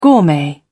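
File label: "gourmet", English, British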